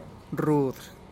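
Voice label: "rud", Teotitlán del Valle Zapotec; rue plant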